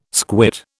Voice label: "squit", British

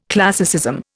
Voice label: "classicism", Indian

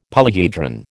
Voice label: "polyhedron", American

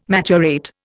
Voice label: "maturate", Indian

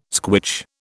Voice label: "squitch", British

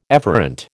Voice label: "efferent", American

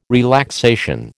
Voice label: "relaxation", American

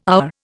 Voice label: "hour", Indian

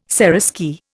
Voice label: "seraskier", Indian

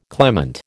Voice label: "clement", American

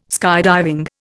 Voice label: "skydiving", Indian

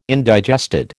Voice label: "indigested", American

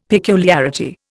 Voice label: "peculiarity", Indian; Dictionary

